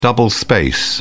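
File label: "double space", British English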